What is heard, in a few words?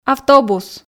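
"автобус", Komi, female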